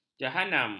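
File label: "jahanam", Malay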